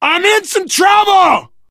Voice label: help, player survivor voice biker

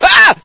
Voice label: scientist fear; source engine; hl1; video games

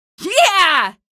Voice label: kill, female; episodic; episode 1; Source engine

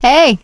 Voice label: scenario join, survivor voice; source engine; left 4 dead 2; producer

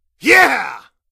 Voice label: kill shots, male; ep2; Source engine

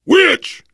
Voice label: warn witch, biker voice; source engine; left 4 dead 2; survivor

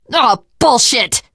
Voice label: swear, teengirl; voice; source engine; left 4 dead 2; survivor

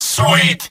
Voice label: scout cheers; video games; source engine; tf; mvm

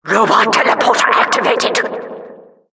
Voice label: announcer tele activated; mvm; eng; source engine; tf

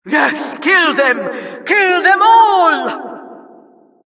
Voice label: announcer, vo, killstreak

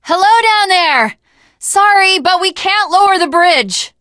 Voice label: initial meeting, teen girl

